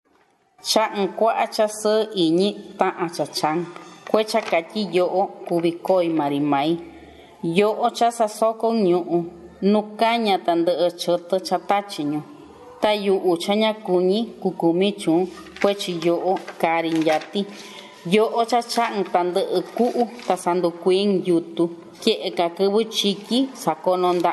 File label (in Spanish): poema a la lluvia en mixteco; revista literariedad